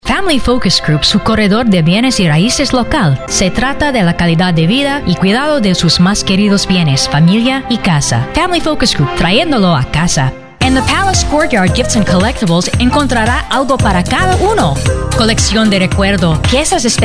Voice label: spanish; COMMERCIALS (Spanish)